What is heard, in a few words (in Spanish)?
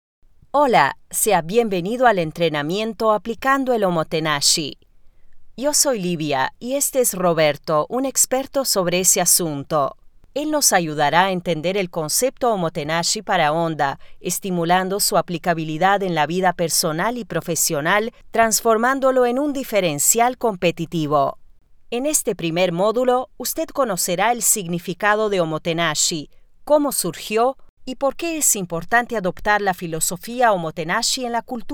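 Elearning Español Neutro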